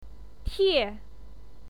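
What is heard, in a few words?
"tie", Mandarin Chinese, fourth tone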